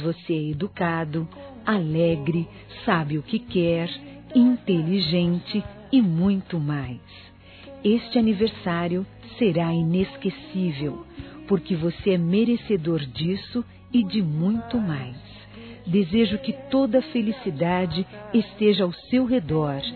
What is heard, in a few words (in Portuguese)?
NETO F, Telemensagens, Aniversários, Fem; Aniv Familiar